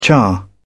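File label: "char", British English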